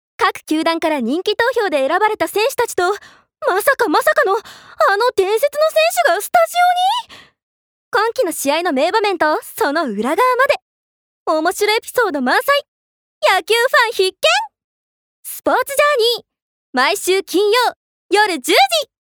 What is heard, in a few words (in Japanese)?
ナレーション